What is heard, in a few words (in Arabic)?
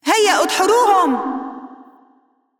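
assist, Arabic; ar AE; VO; Irelia; bloom minigame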